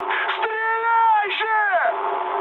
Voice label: attack position, mood high, speech ru